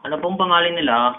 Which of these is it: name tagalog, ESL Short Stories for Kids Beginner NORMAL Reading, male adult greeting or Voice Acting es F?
name tagalog